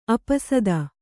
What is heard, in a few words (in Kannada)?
"ಆಪಸಾತು", Kannada